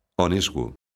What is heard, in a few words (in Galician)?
"ao nesgo", lingua galega; locución adverbial; ɔˈnesɣo̝